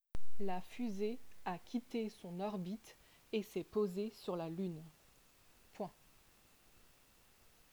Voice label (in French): dictee